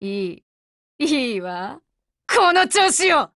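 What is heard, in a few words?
WIN, Japanese; GF Alarm; Colt Defender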